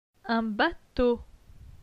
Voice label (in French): "un bateau", francais; phonetique; les voyelles